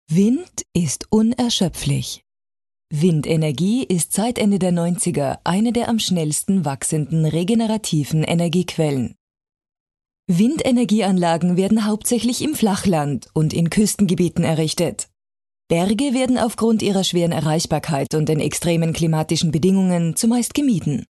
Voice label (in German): doku